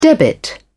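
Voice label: "debit", British English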